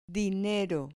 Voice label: "dinero", Spanish